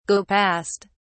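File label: "go past", British English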